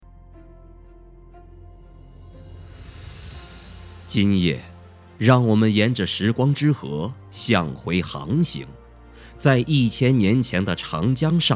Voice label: 男86 大气浑厚-纪录片开场; 历史类

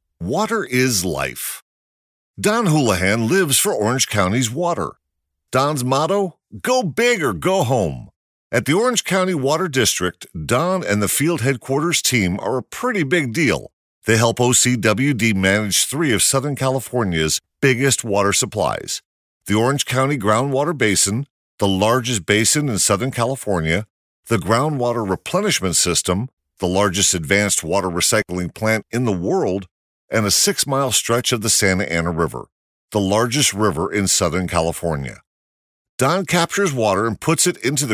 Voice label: 外籍男 专题; water is life

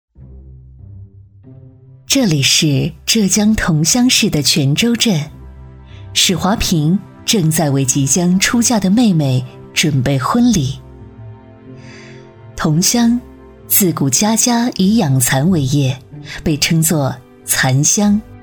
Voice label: 女 专题 讲述; 知性

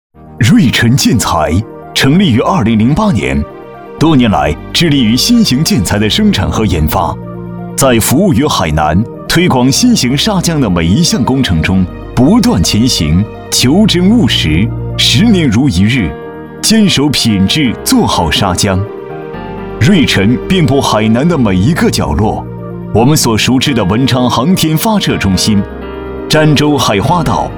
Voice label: 男 建材（浑厚）; 优质大气; 瑞宸建材

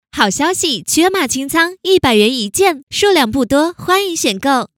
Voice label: 女 促销干音 服装清仓 甜美

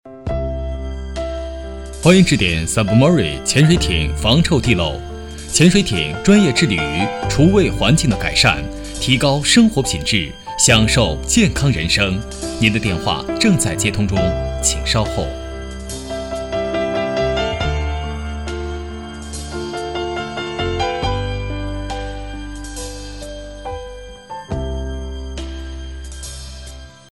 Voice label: 男 厨卫地漏公司

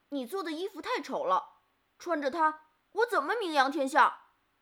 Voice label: 女36 正太

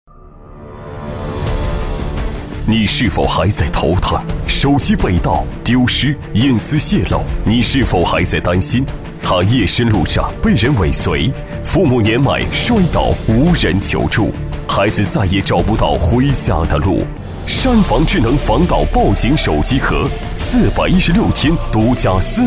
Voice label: 男 报警手机壳（厚重大气）

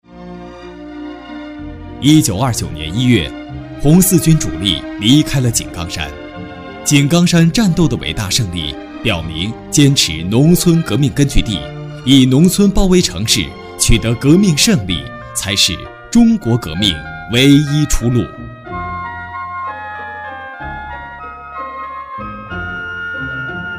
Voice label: 男 纪录片-红军; 实惠年轻

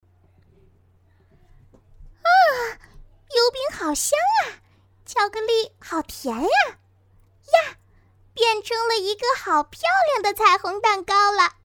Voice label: 女 萝莉

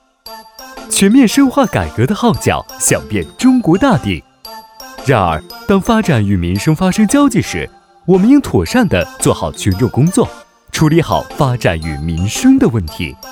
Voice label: 男 飞碟风; 关于拆迁; 实惠年轻

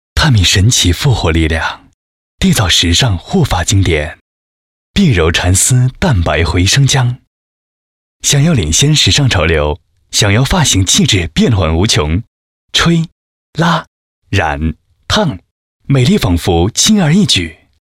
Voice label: 男 年轻时尚 护肤

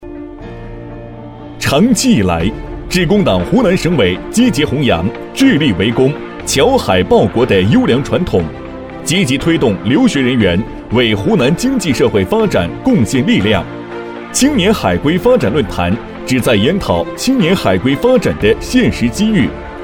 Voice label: 男 海归发展论坛

